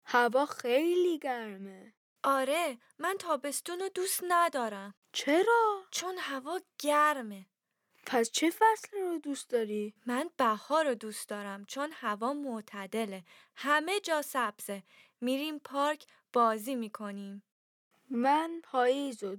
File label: Dialogue lesson Farsi